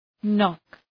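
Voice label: "knock" English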